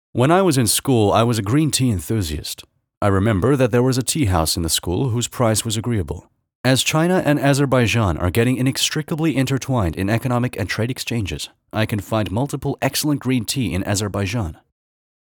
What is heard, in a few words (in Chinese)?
陈述